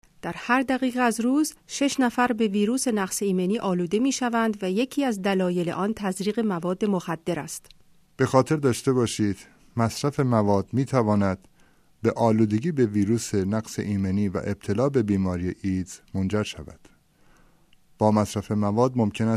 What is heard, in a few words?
spot farsi